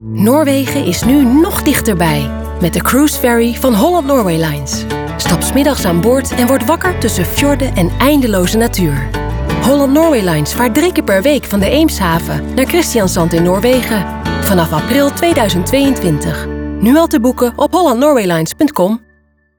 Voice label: Commercial Radio; Holland Norway lines; Radio Noord